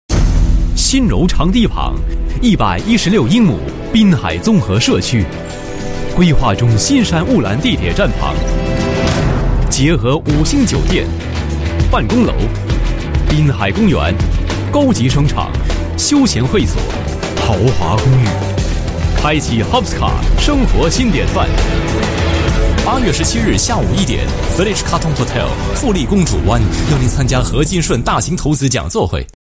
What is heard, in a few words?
Mandarin